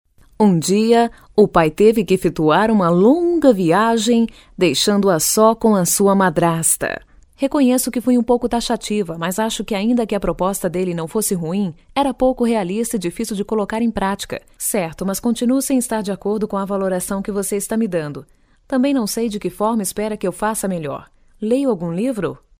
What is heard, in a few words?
brazilian voiceover locutora brasil